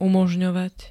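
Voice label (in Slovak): "umožňovať", Slovak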